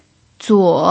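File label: "zuo3", Mandarin Chinese pinyin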